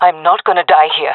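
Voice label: scared, female voice; player vo; Counter Strike Model Pack; CS:GO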